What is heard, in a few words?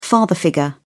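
"father-figure", British English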